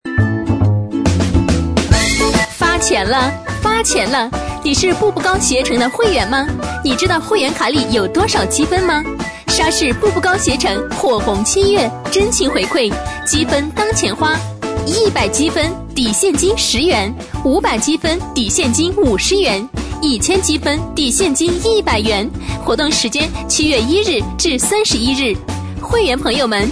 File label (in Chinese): 女声 广告 鞋城 （青春活泼）; 步步高鞋城